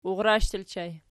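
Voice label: "urrsh" ergative plural, Archi; urrash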